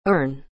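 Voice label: "earn", English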